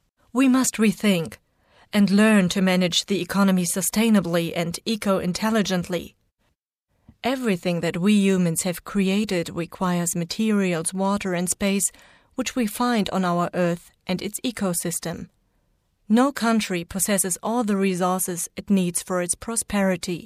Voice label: sachtext englisch

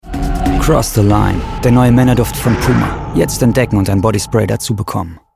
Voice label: Werbung; puma cross the line

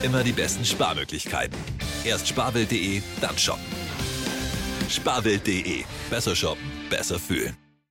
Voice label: tv spot; sparwelt

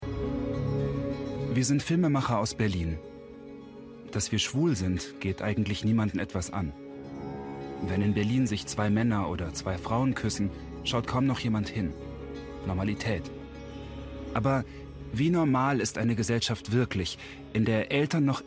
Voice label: kommentar; arte

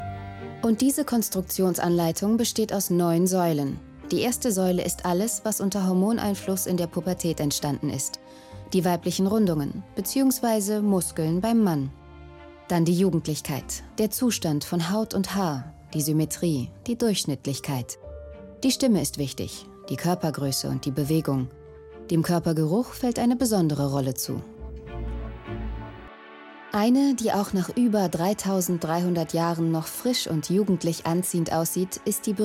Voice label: doku; schoenheit

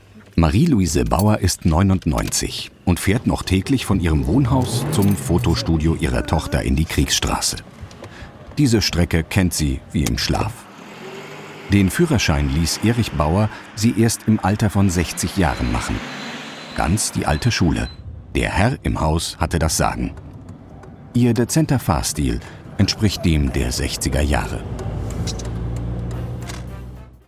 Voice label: tv doku

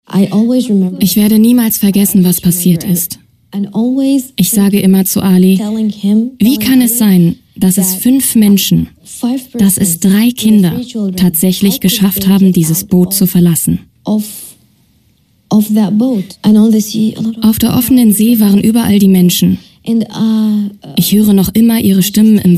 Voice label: voice over Doku; zdf

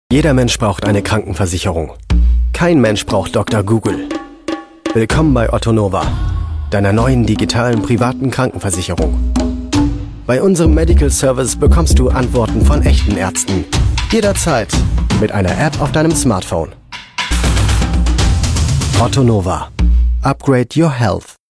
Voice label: werbespot; ottonova

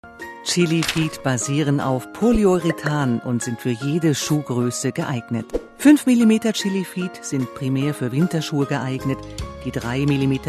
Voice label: deutsch; chili feet

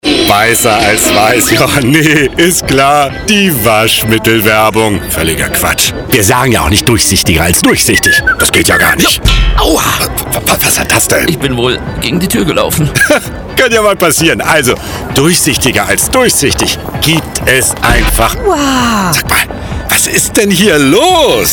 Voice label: radiospot; blitzblank; Muttersprache Deutsch, Französisch

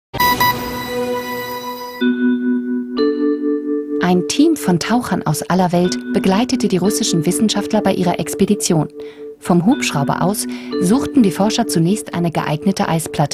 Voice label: doku

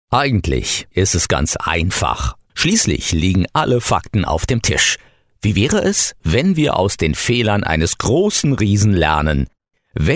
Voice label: falsetti offvoice mix jung

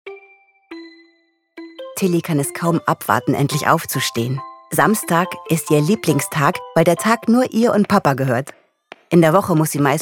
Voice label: Kindergeschichte; tillys tag

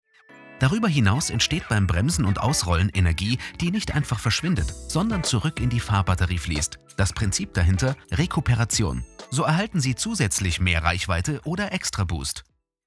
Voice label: erklarend serios

